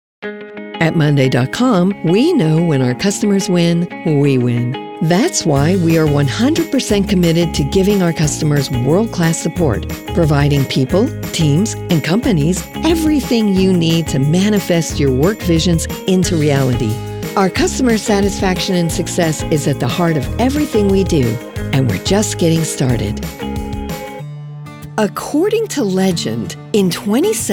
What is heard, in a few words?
CORPORATE